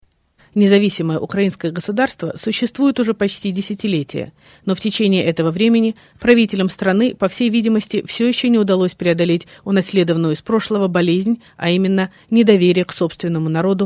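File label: Russian, female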